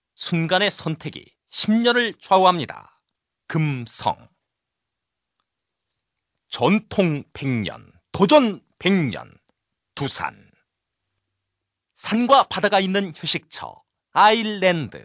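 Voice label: Korean, male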